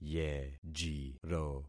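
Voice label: "yejiro", concatenated syllables; Affixing experiment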